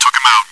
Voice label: chitchat; svencoop